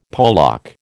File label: "polack", American